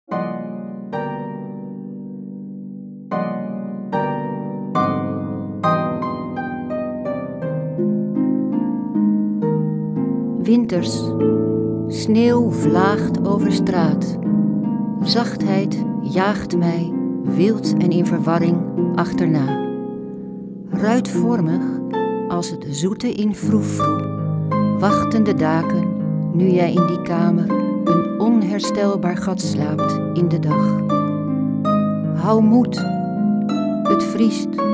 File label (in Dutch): gedicht- muziek